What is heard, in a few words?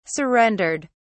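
"surrendered", British English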